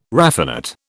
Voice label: "raffinate", British